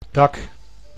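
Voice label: "dak", Dutch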